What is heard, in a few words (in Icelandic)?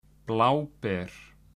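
"bláber", Icelandic; matarhefti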